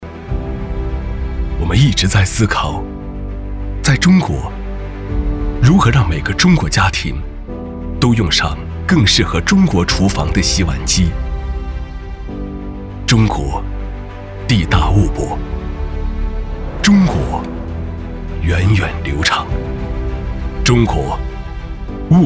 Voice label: 男 大气旁白宣传; 老板电器

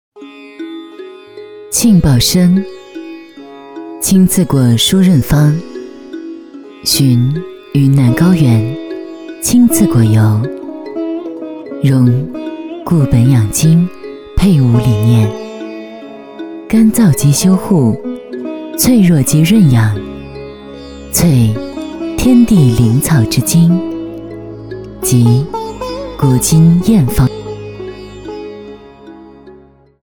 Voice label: 女, 广告; 庆宝生

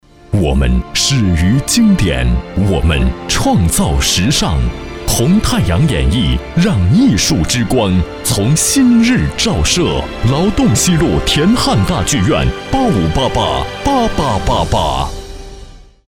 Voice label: 广告; 红太阳

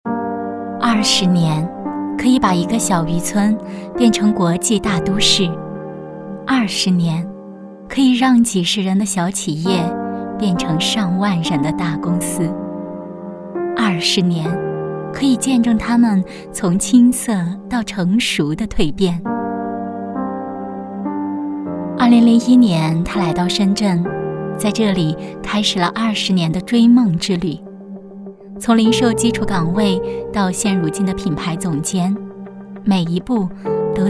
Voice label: 女 专题; 二十年的岁月

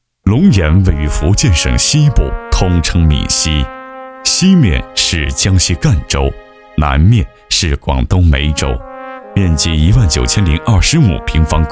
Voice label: 男 专题 温柔大气; 龙岩

